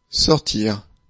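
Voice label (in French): "sortir", French; afrikalan; lecture fr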